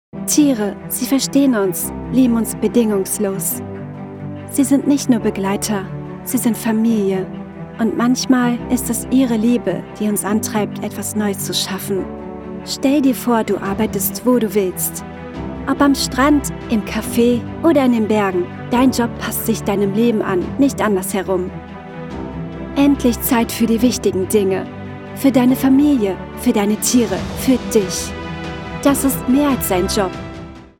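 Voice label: German, female, pet spot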